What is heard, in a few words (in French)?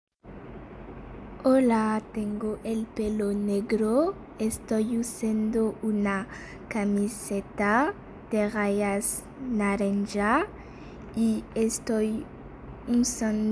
espagnol; Alumnos de 6eA